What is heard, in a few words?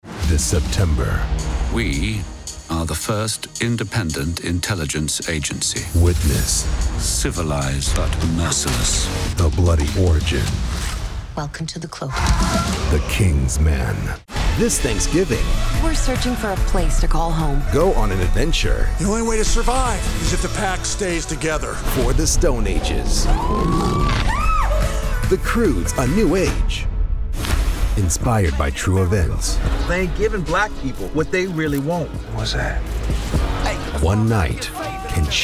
trailers men